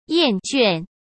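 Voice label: "厌倦", Mandarin Chinese; chinese-flashcards; hsk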